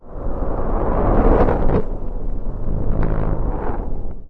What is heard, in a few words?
strange talk; Garry's Mod; hl2; citadel